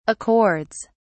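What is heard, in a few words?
"accords", British English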